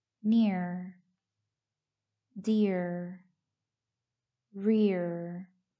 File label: Common Spelling Pattern iɚ